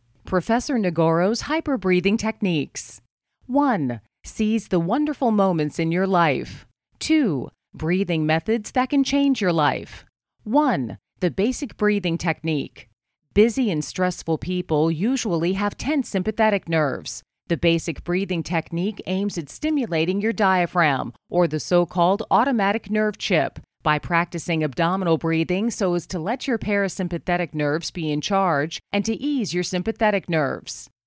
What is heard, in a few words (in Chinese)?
外籍英女 呼吸法讲解